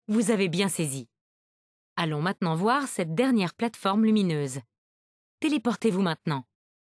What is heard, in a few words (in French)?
lang fr ca, voiceovers